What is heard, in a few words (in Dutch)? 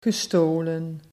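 "gestolen", Dutch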